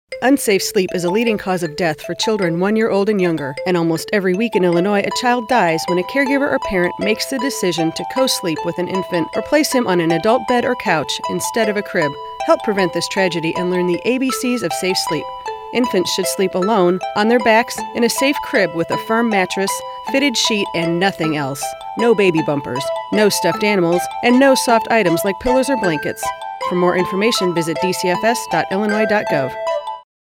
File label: public service announcements; safe sleep; DCFS